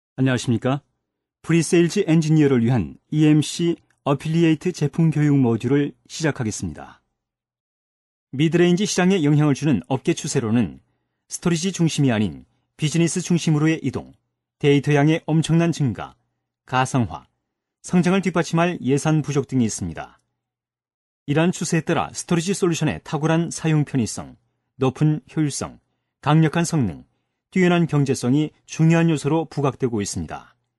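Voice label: KOR M various, Korean, male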